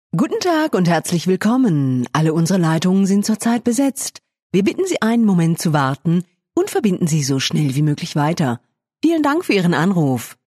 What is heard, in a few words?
German F On Hold